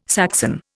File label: "Saxon", Indian